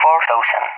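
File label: "400", English, Spain; Numbers; 4000; DCS; Allied Flight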